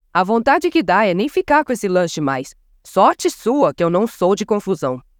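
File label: npc job female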